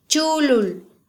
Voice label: "chulul", mam